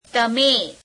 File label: "you", Gujarati; તમે; teme